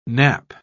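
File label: "nappe", British English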